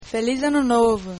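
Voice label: new year, Portuguese; Feliz Ano Novo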